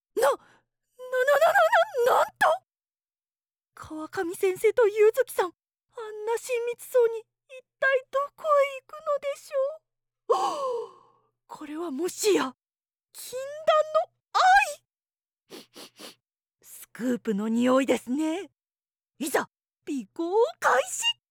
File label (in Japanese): 写真部のオタク女子